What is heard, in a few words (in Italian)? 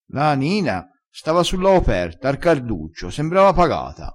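"sembra pagato", dialetto crespinese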